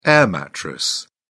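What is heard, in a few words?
"air mattress", British English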